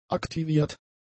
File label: "aktiviert", german; adjectives